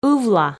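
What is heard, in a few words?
"ывла", Komi, female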